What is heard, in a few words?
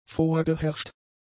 "vorgeherrscht", German; adjectives